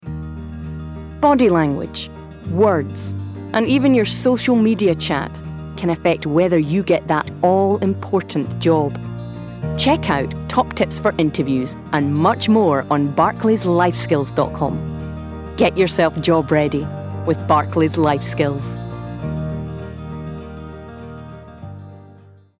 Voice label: Commercial; Barclays

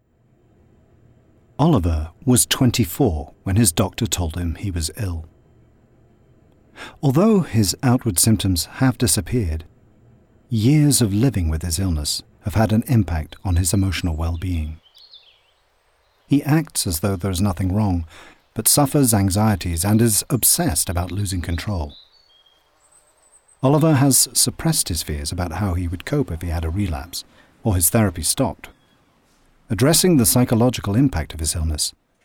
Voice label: Documentary Extract

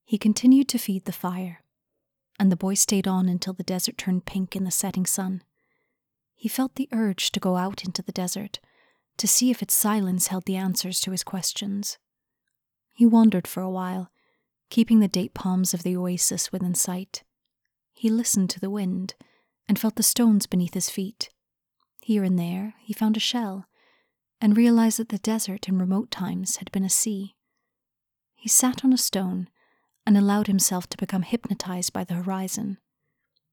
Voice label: Narration Extract

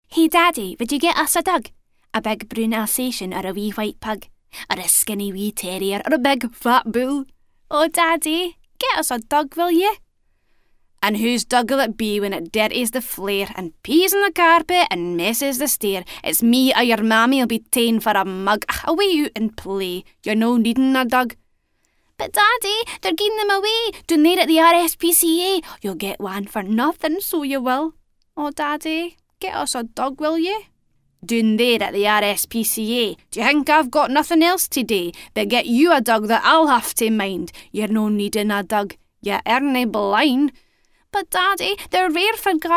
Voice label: Kids Voice Sample

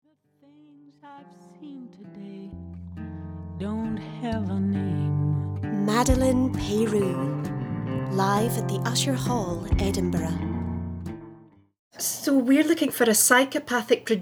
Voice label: Radio Play Extracts; Various

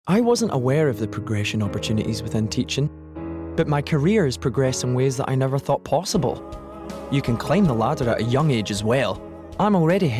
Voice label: Teaching Commercial

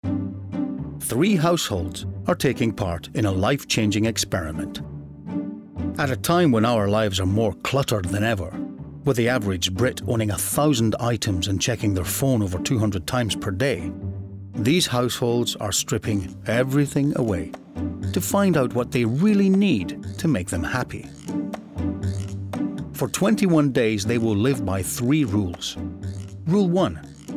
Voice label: Documentary; Life Stripped Bare

